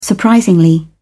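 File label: "surprisingly", British English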